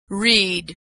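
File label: verb "read" present, pronunciation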